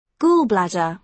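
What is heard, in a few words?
"gall bladder", British English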